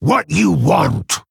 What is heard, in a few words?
Male Orc